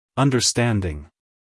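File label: "understanding", American English, male; everyone-can-use-english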